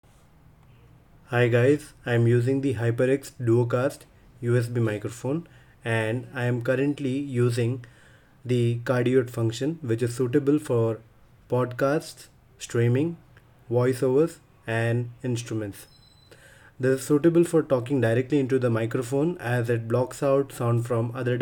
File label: HyperX DuoCast cardioid